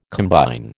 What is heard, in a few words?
"combine", American